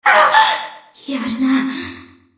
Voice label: Enemy Voice French Light Cruiser Princess Under Attack